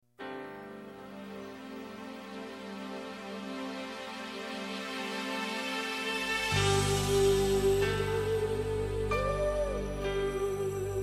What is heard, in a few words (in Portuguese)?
Amizade Com Despedida pra Quem Fica, F